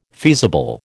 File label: "feasible", American